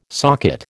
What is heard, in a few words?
"socket", American